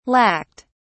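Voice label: "lacked", British English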